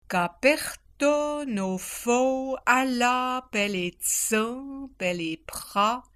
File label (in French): prononciation patois vaudois